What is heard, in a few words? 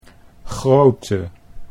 "grootte", Dutch